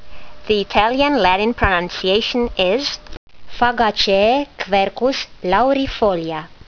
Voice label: latin; Quercus laurifolia